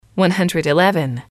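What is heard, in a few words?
"one hundred eleven", English, female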